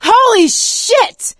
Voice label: reaction negative, survivor voice; source engine; left 4 dead 2; producer

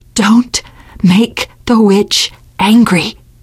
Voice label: witch getting angry, teengirl; survivor voice

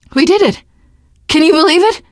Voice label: hurrah, teen girl, survivor voice

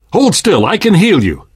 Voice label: heal other, left4dead2 survivor voice namvet; source engine

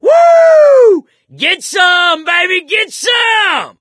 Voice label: battle cry, survivor voice; source engine; left 4 dead 2

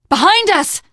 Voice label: watch out behind, teen girl voice; source engine; left 4 dead 2; survivor

